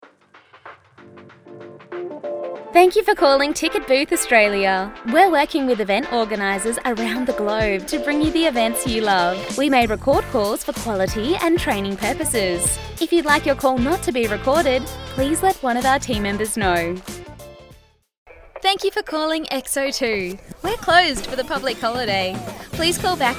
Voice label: IVR Phone Greeting

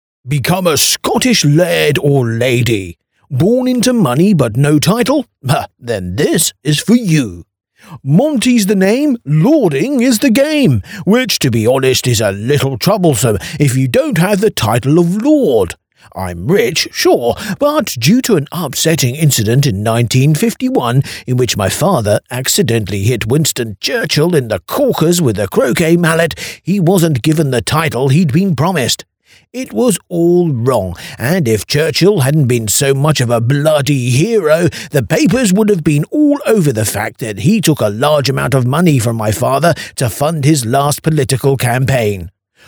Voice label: Comedy Audio Book Character

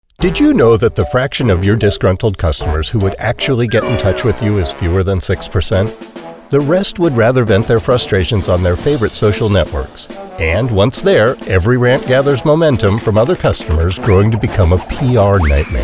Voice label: NARRATION

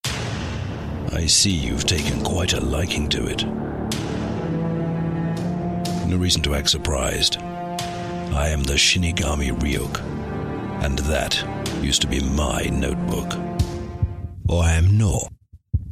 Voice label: Character Video Game

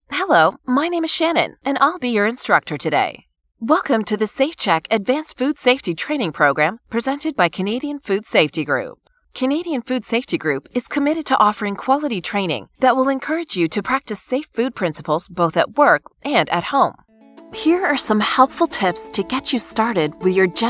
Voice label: E-learning

